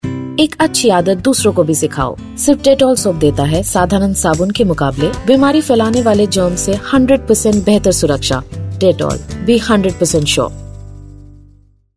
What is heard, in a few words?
Hindi, female voice; Dettol